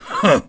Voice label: Sneer; Ganondorf's sneer after laughing; Zelda